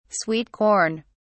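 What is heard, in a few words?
"sweet corn", British English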